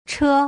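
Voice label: "che", Mandarin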